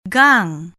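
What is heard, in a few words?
"gang", Malay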